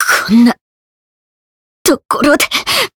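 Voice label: Voice retire, Japanese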